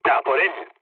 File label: attack position, mood low, speech; War Thunder